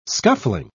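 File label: "scuffling", English, British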